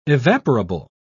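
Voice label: "evaporable", British English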